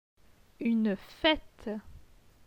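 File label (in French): "Une fête", French; phonetique; les voyelles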